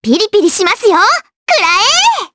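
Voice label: voice, Japanese; Estelle; kingsraid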